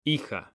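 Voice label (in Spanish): "hija", Spanish, male; mekanta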